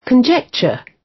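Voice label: "conjecture", British; Language, linguistics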